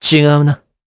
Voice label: Deny, jp; Esker; kingsraid

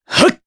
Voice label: Vox Casting jp; Kasel; kings raid

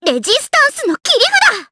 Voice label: Vox Skill, jp; Rehartna; kingsraid

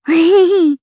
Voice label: Happy, jp; voices; heroes; Miruru; kingsraid

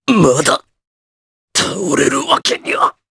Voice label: Vox Dead, jp; Riheet; kingsraid audio

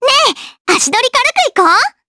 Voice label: Vox Skill jp; Estelle; kingsraid

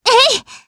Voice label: Vox Attack jp; Frey; kingsraid

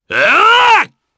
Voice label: Vox Casting, jp; kingsraid

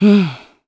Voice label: Think, jp; Hilda; kingsraid

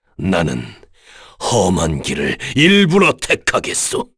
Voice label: vox get, kr; Dakaris; kingsraid

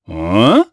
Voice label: Vox Deny, jp; voices heroes jp; Baudouin; kingsraid

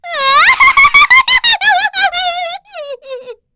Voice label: Vox Happy; Pansirone; kingsraid

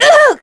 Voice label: Damage, kr; voices; heroes; Naila; kingsraid

